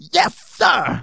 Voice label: "Yes sir", speech; Soul Man